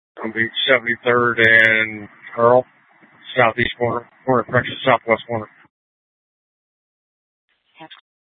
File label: Scottsdale PD Sth Dispatch, scanner